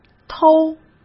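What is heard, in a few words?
"偷" Mandarin Chinese; hsk; chinese-flashcards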